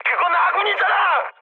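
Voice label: Korean player friendly fire mood high; speech; War Thunder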